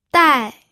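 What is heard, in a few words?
"dai", pinyin, fourth tone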